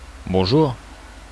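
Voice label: "bonjour", French; recrue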